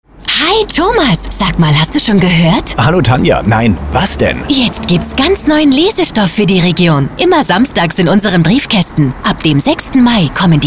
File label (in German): RADIOSPOT; Am Wochenende Neuer Lesestoff ab